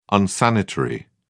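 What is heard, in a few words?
"unsanitary", English, British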